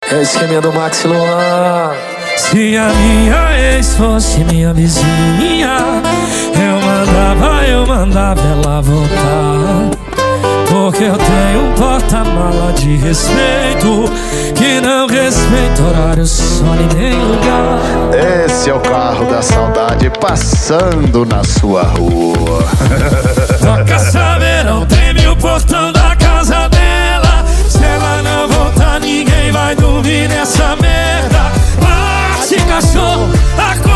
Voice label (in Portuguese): locutor a voz magnifica